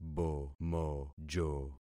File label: "bomojo", concat syllables; Affixing experiment